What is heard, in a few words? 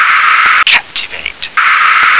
"captivate", English